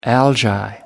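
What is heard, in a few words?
"algae", British English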